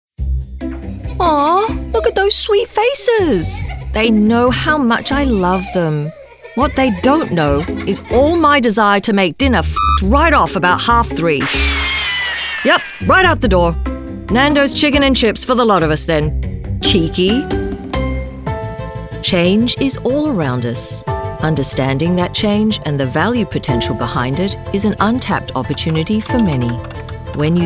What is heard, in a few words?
Commercial